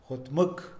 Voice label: atreverse, Mixe, hombre; Emociones; INALI

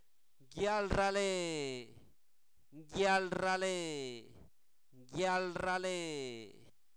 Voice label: enojo, Zapoteco, santo domingo albarradas; INALI; enfermedades

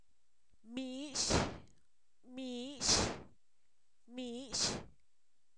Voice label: mixe, Zapoteco, san miguel albarradas; toponimos

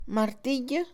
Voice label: martillo, Mixe, Mujer; INALI

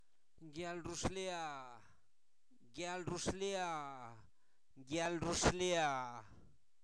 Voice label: boda, Zapoteco, santo domingo albarradas; INALI; sustantivos

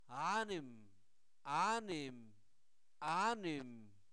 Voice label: alma, Zapoteco, santo domingo albarradas; INALI; humano

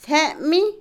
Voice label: Otomí, mujer; ordeñar; Comida; INALI

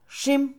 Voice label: allá, Mixe, Mujer; INALI

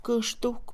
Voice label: gemir, Mixe, Mujer; Emociones; INALI

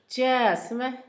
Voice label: "salta él (de respeto)", Zapoteco Asunción Ocotlan; INALI; Haspelmath

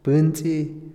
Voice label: dar vuelta, Otomí, hombre; Movimiento; INALI

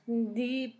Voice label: cicatriz, Zapoteco; Enfermedades; San Pedro Mártir; INALI

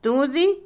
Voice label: algodón, Otomí, mujer; Ropa; INALI

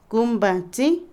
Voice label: hermano menor, Otomí, mujer; Parentesco; Vocabulary; INALI

